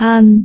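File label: "aan2", Cantonese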